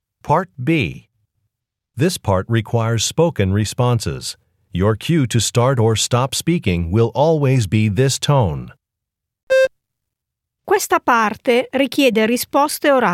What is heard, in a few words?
italian directions